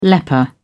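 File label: "leper", British English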